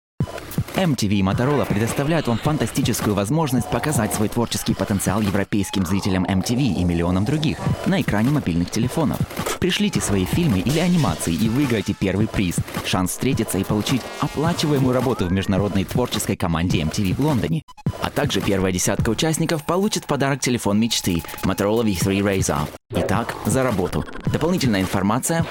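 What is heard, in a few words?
Russian, Commercial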